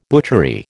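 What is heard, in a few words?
"butchery", American English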